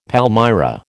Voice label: "palmyra", American

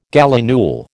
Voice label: "gallinule", American

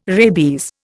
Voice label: "rabies", Indian

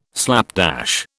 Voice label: "slapdash", British

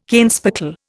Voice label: "kenspeckle", Indian

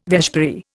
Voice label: "vespiary", Indian

